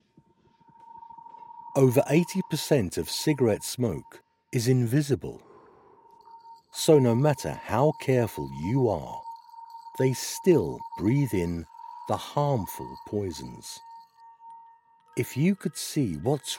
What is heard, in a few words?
Commercials